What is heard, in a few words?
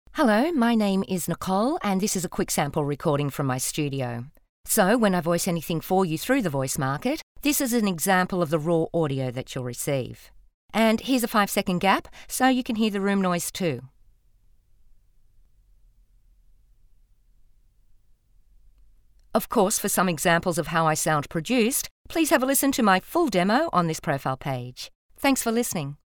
Studio Sound Check